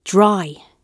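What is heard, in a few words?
"dry", British English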